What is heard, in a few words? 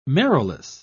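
"marrowless", British English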